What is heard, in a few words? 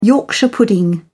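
"yorkshire pudding", British English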